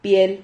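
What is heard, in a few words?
"piel", Spanish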